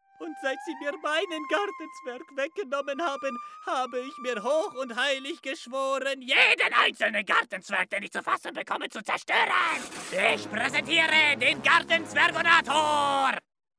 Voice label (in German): Cartoon lustig durchgeknallt Charge; LOFT VOICES